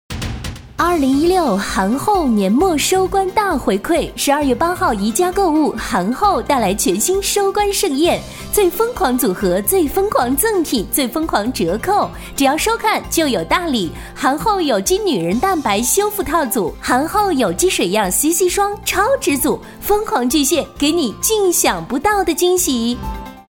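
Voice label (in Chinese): 女（甜美活力）; 韩后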